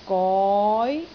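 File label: "goi2", Cantonese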